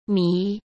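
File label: "谜", Mandarin Chinese; hsk; chinese flashcards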